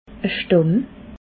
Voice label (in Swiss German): "stamm", alsace